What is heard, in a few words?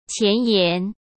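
"前沿", Mandarin Chinese; chinese-flashcards; hsk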